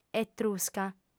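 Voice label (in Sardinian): "etrusca", female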